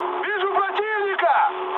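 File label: Russian enemy detected mood high, speech; War Thunder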